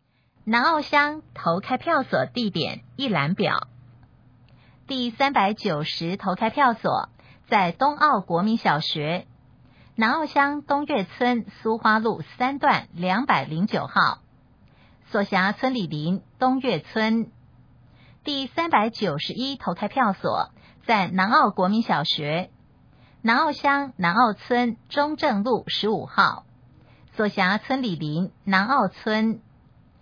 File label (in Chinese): 投開票所, 國語語音; 宜蘭縣; 南澳鄉